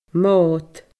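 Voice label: "mod", Scottish Gaelic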